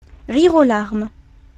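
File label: "rire aux larmes", French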